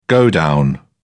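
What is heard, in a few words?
"godown", British English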